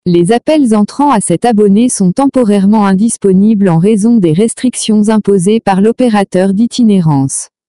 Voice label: уведомление ФР

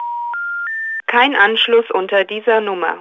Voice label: ОТВЕТ ЭЛЕКТРОННОГО ОПЕРАТОРА НЕМЕЦКИЙ

